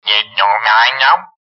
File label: funny voice